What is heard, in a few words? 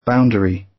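"boundary", British English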